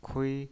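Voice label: "kui", pinyin, Mandarin Chinese, first tone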